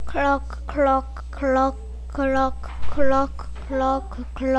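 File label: "clock", spoken word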